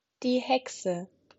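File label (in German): "Hexe", German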